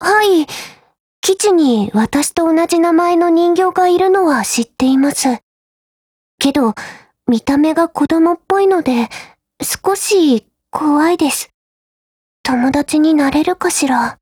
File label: DIALOGUE, JP